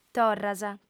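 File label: "torras", Sardinian, female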